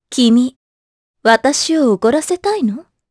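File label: Vox Skill, Japanese; Epis; kingsraid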